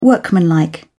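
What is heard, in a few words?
"workmanlike", British English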